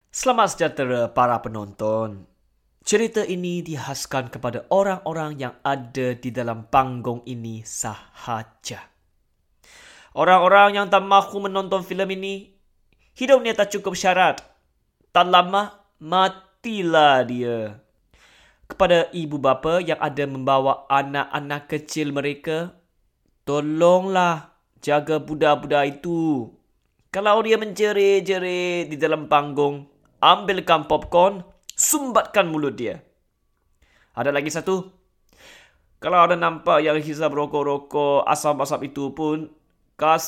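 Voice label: Malay, Narration; GoLocalise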